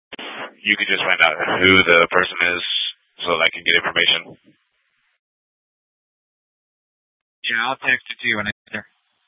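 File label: police department dispatch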